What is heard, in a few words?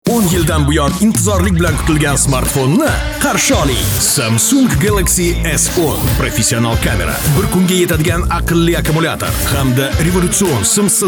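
uz, Uzbek; preorder samsung galaxy s10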